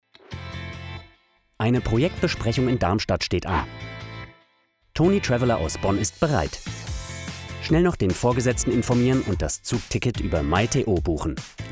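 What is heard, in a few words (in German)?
Erklär Video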